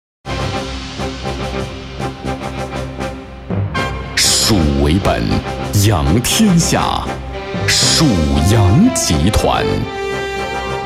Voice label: 国语男 企业宣传类; 蜀杨集团

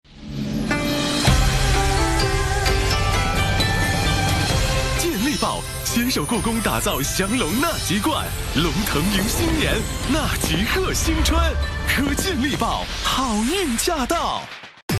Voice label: 国语男 广告 食品类; 故宫健力宝祥龙纳吉罐广告

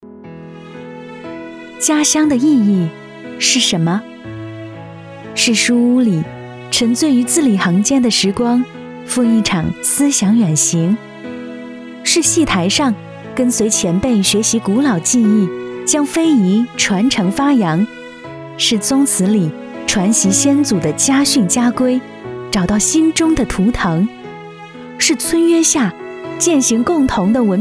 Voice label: 国语女-普通专题; 家乡的意义

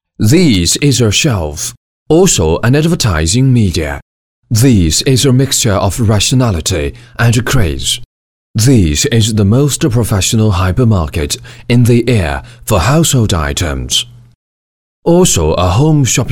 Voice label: 英语男, 温暖动听科技

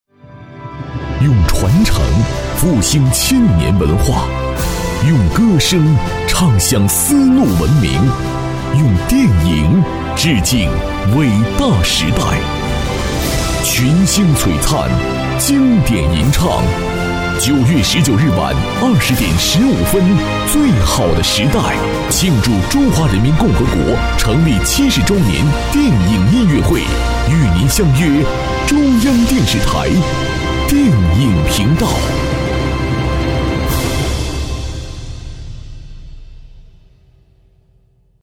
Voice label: 国语男-卫视宣传; 中央电视台电影频道; 最好的时代 庆祝中华人民共和国成立70周年电影音乐会

